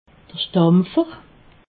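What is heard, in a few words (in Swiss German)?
"stampfer", Haut-Rhin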